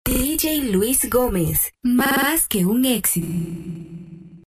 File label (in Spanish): VOZ OFF FEMENINA